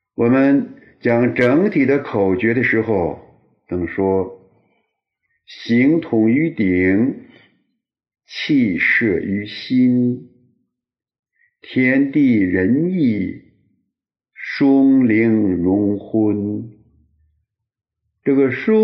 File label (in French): son eng poème